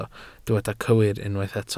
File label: "cywir", Welsh